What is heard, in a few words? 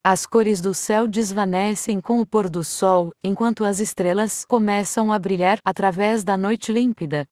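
Brazilian Portuguese; iOS 26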